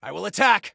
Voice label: Self Attack Attack, Soldier Male; Soldier Male 01

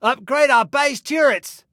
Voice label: Upgrade Turret, Male; Male 02

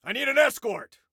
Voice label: Need Escort, Soldier Male

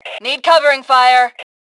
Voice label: Need Cover, Female; T2 Female 03

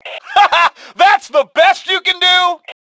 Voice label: Taunt Obnoxious, Male; T2 Male 01

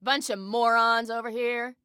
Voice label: Enemy Disarray, Female

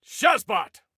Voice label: "Shazbot", Soldier Male

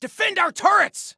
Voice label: Defend Turrets, Soldier Male; Soldier Male 01

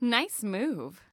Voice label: Compliment Nice Move, Female

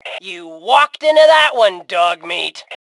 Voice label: Taunt Learn, Female; T2 Female 04

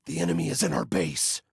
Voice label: Enemy In Base, Male; Male 01

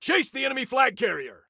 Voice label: Attack Chase, Soldier Male

